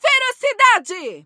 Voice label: voice evil special; ferocidade; rin